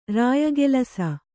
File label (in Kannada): "ರಾಯಗೆಲಸ", Kannada